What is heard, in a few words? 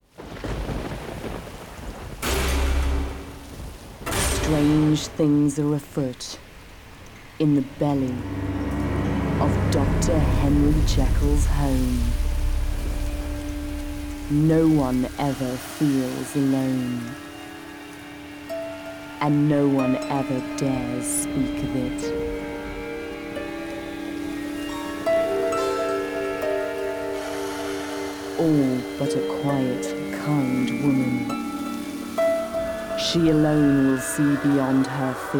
TV Promo; Sony Entertainment Television SA; Mary Reilly